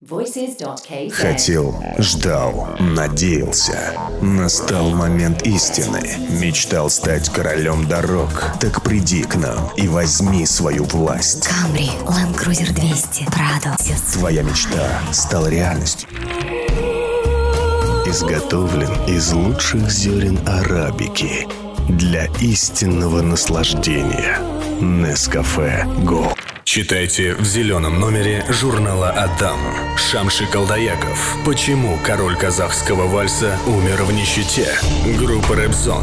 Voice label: ru

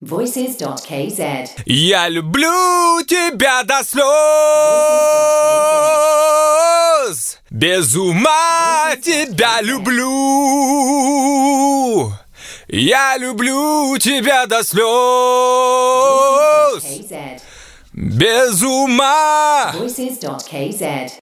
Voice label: ru vocal

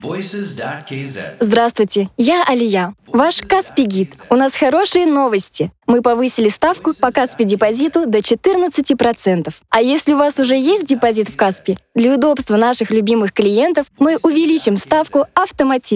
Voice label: rus

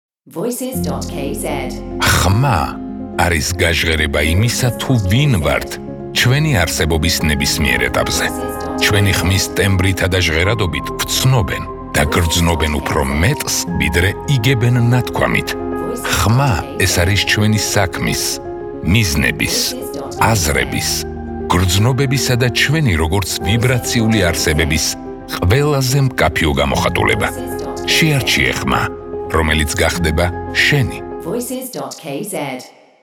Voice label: man

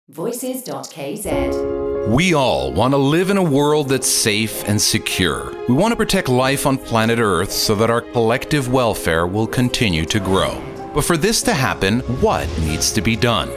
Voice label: en

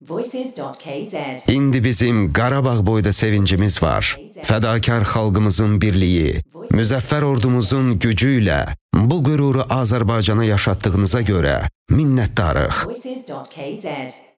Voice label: Voice aze

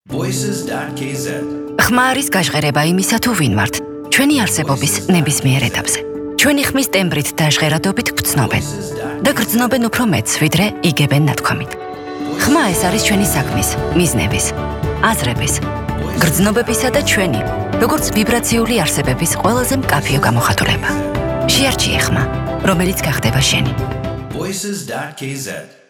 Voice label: woman

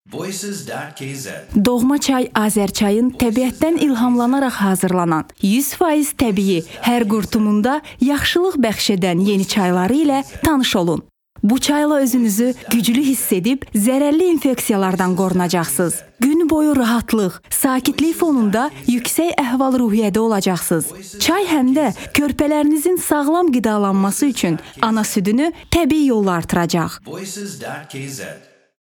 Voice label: Voice aze

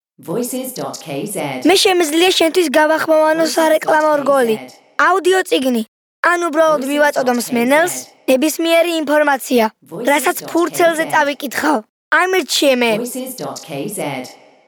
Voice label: kid boy

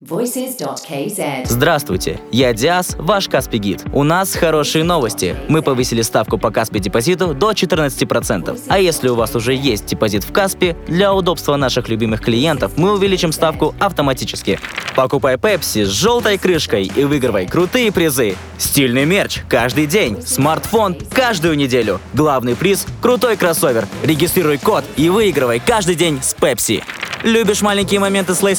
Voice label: ru

